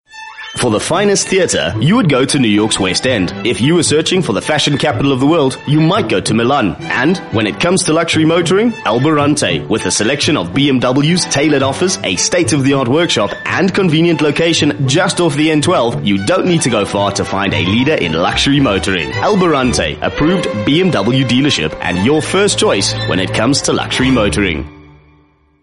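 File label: English South African, male, advert; accent of south africa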